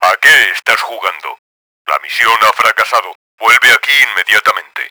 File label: angry, Spanish